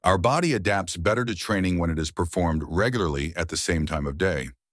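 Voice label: British male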